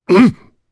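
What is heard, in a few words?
voice, Japanese; Kaulah; kingsraid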